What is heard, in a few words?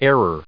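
"error", English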